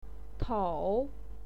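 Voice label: "tong", Mandarin Chinese, third tone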